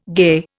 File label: "gay", Indian